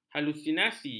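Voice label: "halusinasi", Malay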